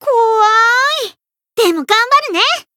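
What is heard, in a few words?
encount fear, Japanese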